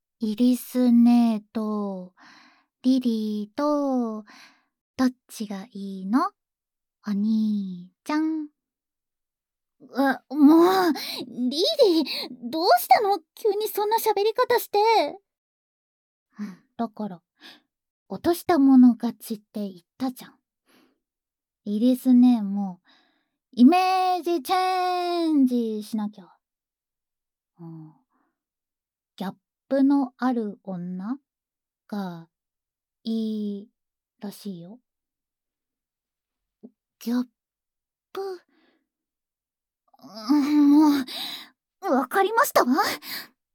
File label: FreeTalk, ASMR